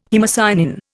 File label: "haemocyanin", Indian